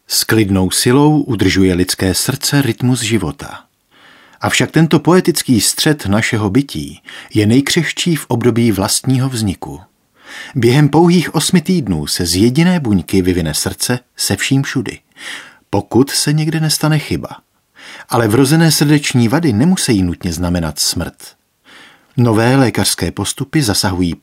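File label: male Czech documentary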